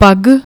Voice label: "pag", Punjabi